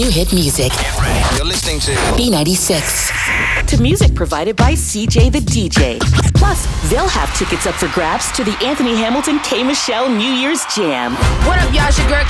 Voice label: Radio Imaging